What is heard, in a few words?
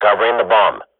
radio bot guard bomb kill, swat; Counter Strike Model Pack; CS:GO